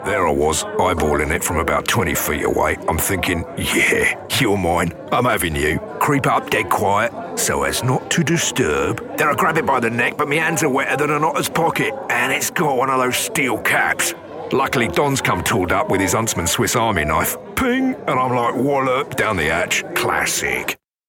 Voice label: cockney